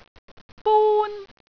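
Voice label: "bun1", Cantonese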